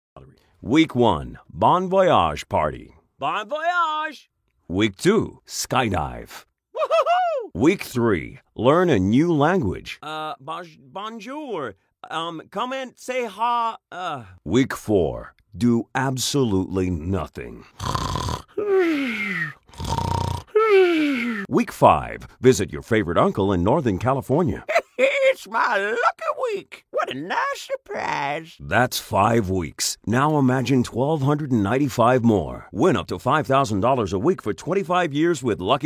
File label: USA